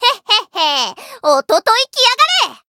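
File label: win, Japanese; may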